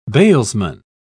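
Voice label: "bailsman", British English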